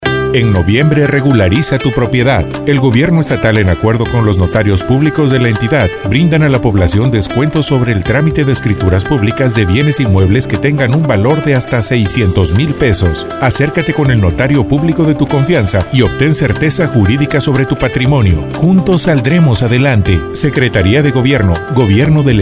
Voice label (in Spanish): PROMO REGULARIZA TU PROPIEDAD